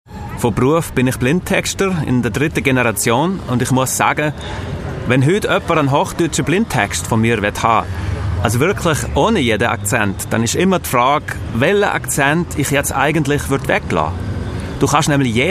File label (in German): Dialekt Schweizerisch